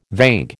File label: "vague", American